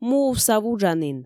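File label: "муувса вуджанін", Komi, female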